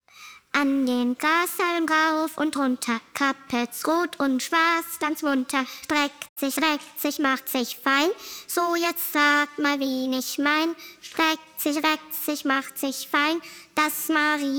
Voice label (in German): Auf der Wiese (Sprechstimme solo); Marienkaefer